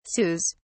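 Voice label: "sous", British English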